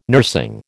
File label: "nursing", American